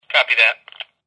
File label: walkie talkie copy that